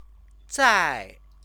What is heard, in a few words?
"zai", Chinese